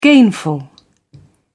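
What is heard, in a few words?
"gainful", British English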